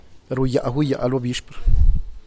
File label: "ruyaguyaalobixpr", teotitlan